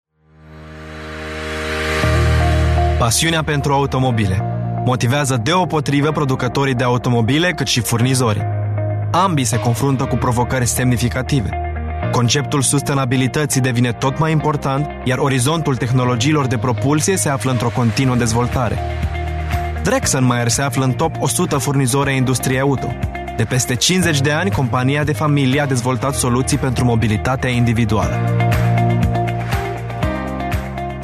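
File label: Romanian, male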